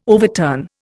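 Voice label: "overturn", Indian English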